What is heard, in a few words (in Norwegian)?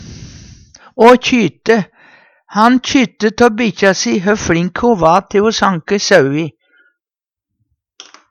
kjyte, Numedalsmål; Han kjytte tå bikkja si, hø fLink ho va te sanke saui.